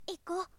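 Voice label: "iko", boy; shonen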